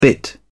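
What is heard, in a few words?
"bit", British English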